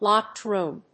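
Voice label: "locked room", English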